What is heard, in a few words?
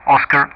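"Oscar", English; Ka-50; DCS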